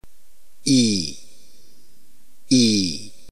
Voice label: iota long, ntgreek phoneme

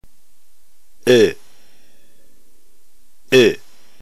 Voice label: upsilon, ntgreek phoneme

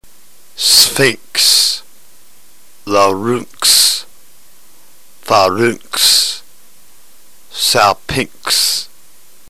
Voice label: drill, ntgreek

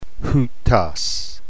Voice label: "houtos", Greek

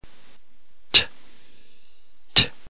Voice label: tau, ntgreek alphabet phoneme

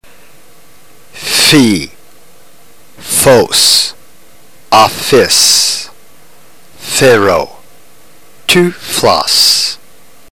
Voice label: drill, ntgreek

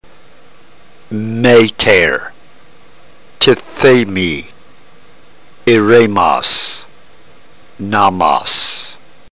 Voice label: drill, ntgreek

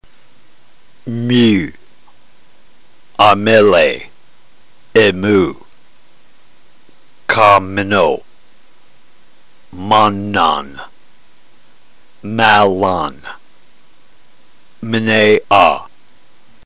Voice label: drill, ntgreek

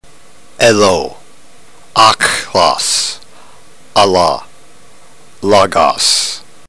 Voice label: drill, ntgreek

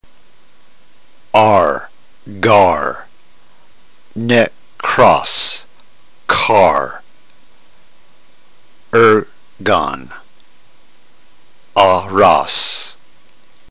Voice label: drill, ntgreek

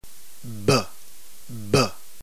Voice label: beta, ntgreek alphabet phoneme

